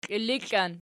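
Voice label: "klillikklan" nominative, Archi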